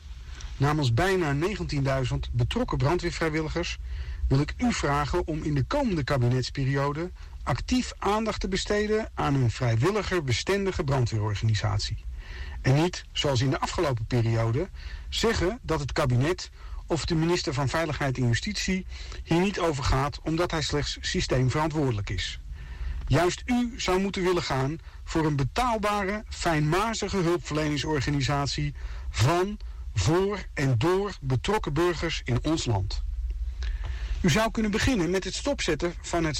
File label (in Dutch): Inspreken Voicemail; Mark Rutte